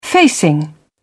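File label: "facing", British English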